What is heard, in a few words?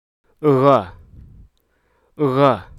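Hruso Aka; distance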